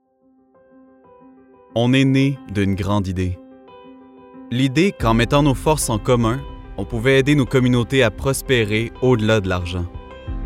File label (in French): publicite fr qc naturel promo rassurant sobre adulte medium; desjardins